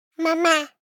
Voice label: BABIES TALK Boy 12 months "Mama"; Baby Boom